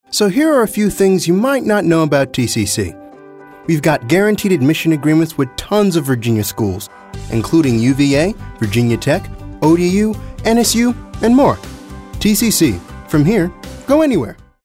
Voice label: conversational